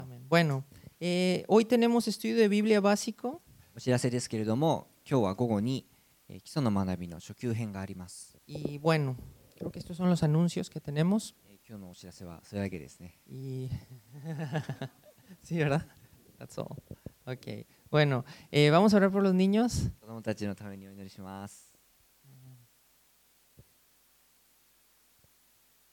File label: announcement and prayer for children; sunday message 2018